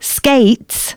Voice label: announcements female